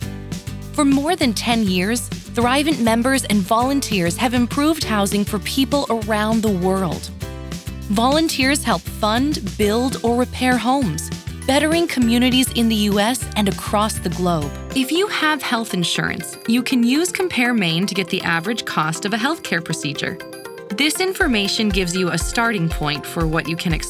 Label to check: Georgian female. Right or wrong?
Wrong